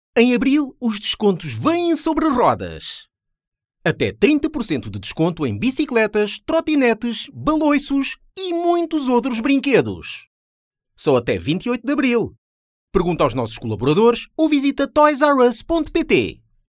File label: portuguese announcements